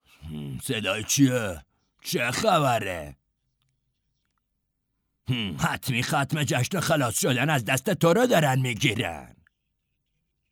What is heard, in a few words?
dub